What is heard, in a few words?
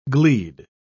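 "gleed", British English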